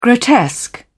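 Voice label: "grotesque", British English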